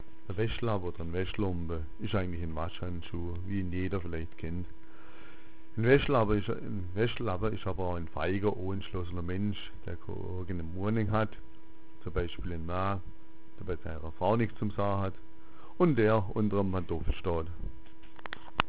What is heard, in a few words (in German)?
waschlabba, schwaebisch